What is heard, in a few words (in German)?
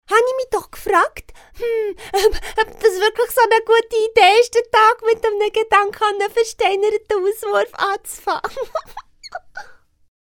Kind